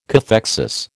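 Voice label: "cathexis", American English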